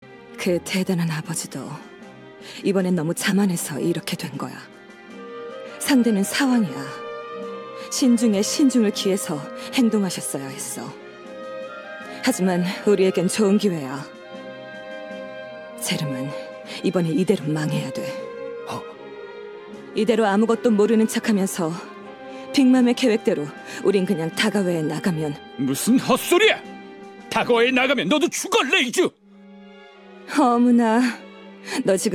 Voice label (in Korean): 차분한 여성 20대; 원피스; 레이주